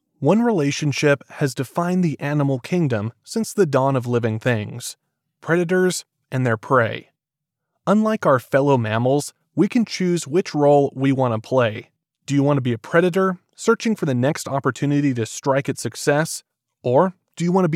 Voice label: Finance Self Help Audiobook